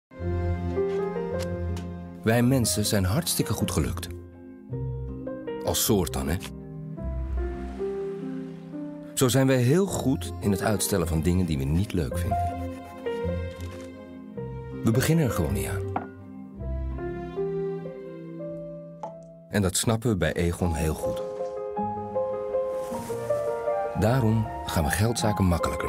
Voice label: commercial; Aegon